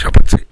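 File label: "yabatui", Minamiyamagata dialect Japanese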